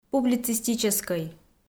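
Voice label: "публицистическӧй", Komi, female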